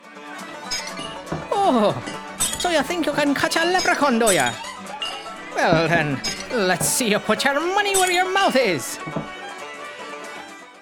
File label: lepruchaun, voiceover